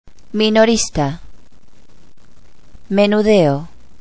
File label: "retail", Spanish